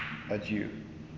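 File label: "adieu", Canadian, male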